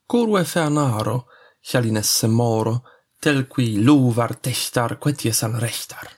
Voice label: abc intro fast